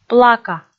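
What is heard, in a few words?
"плака", Komi, female; audio dict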